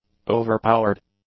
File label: "overpowered", English; adjectives